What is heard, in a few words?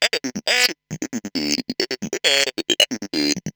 Bot Scat (135BPM), sci-fi